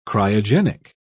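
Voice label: "cryogenic", British English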